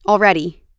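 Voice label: "already", American English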